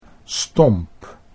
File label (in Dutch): "stomp", Dutch